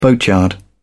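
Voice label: "boatyard", British English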